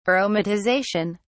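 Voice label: "aromatization", British English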